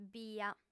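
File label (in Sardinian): "bia", female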